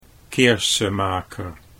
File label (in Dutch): "Keersemaker", Dutch